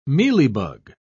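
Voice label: "mealybug", British English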